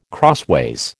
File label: "crossways", American